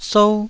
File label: "sou1", Taiwanese syllable